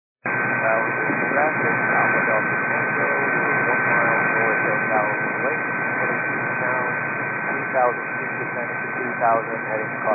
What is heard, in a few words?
122 925MHz, scanner radio